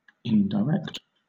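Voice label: "indirect", British English; pronunciations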